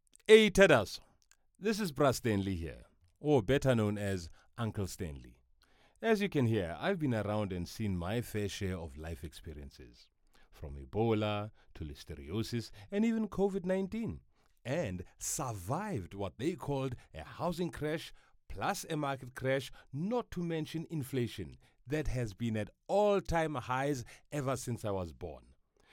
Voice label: English Kasi Style

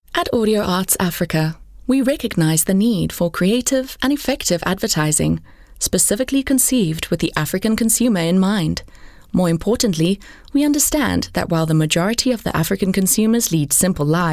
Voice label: English Corporate

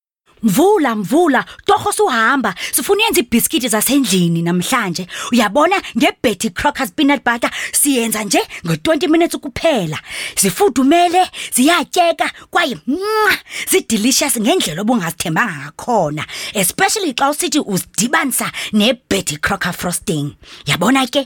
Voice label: Xhosa Conversational

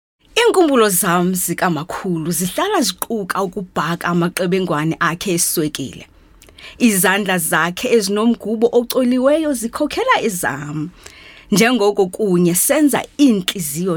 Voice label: Xhosa Conversational

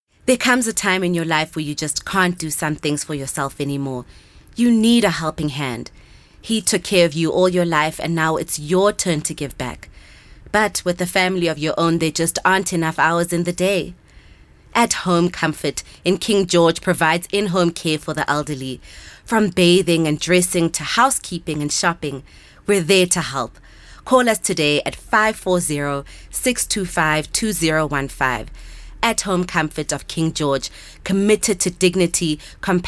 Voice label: English Conversational

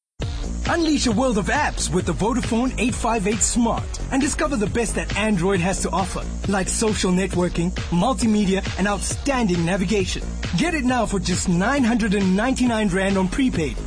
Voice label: English Hard Sell

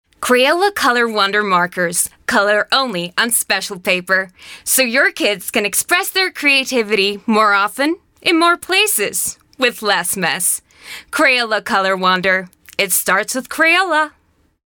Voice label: Accent American

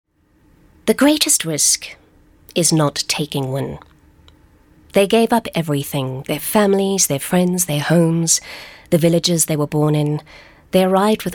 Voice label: English Narrator

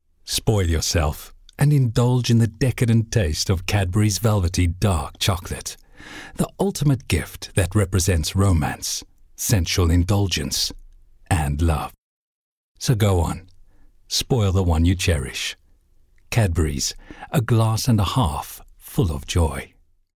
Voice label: English Soft Sell

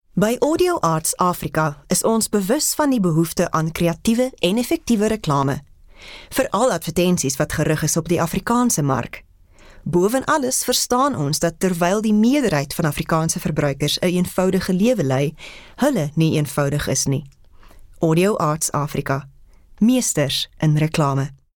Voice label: Afrikaans Corporate

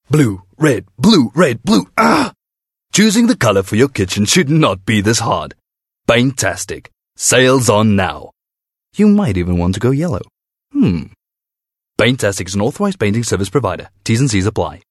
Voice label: English Bright

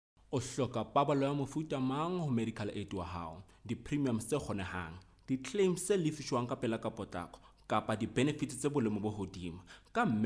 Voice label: Sotho Corporate

